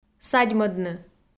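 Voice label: "садьмӧдны", Komi, female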